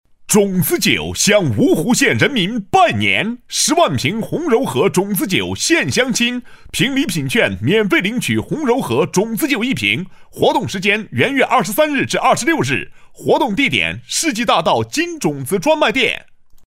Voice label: 拜年广告

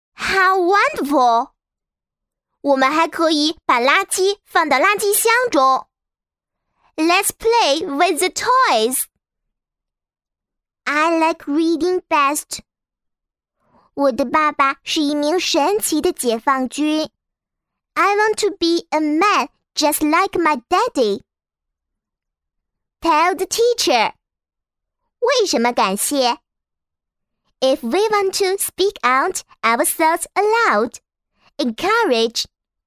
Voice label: 童声男女 中英 各种类型中英男女童声; 点读机 角色

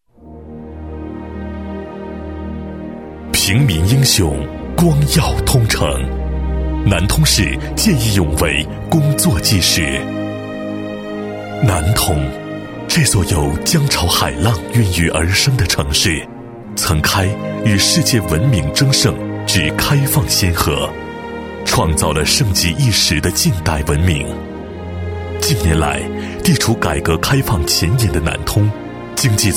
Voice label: 男60 专题; 交广主播

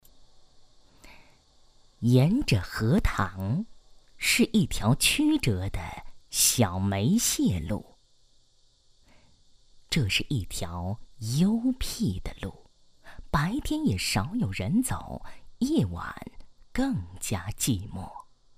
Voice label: 女 散文朗诵, 成熟稳重; 国语女声配音; 荷塘

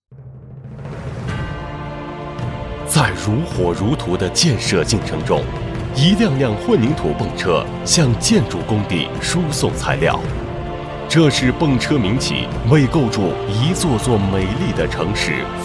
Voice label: 男 企业宣传 企业宣传片解说配音; 九州泵管

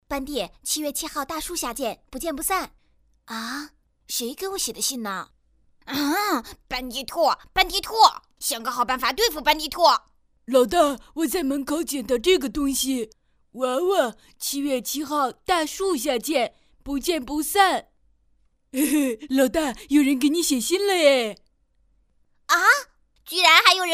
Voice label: 女

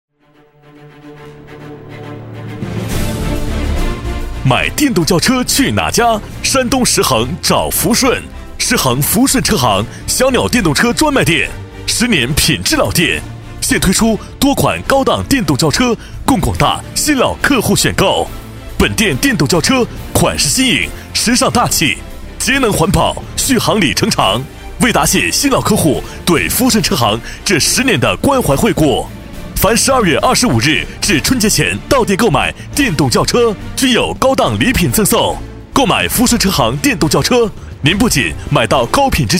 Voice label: 男60号 促销; 福顺车行; 交广主播

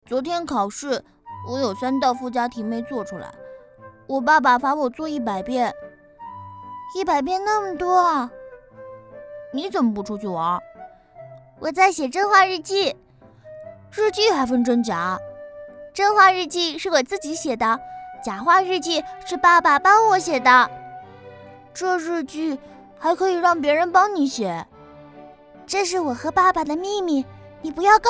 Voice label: 童声, 男童女童对话

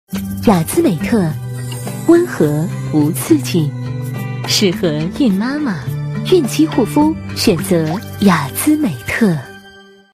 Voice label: 女4号 品牌广告, 国语女声配音

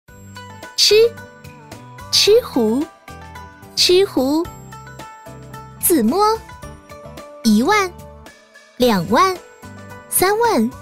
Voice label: 女 游戏 麻将 柔和

